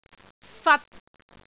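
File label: "fat3", Cantonese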